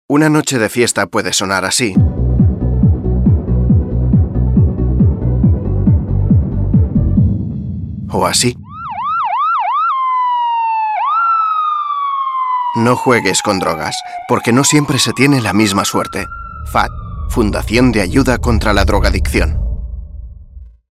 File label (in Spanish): castellano; Campañas Fad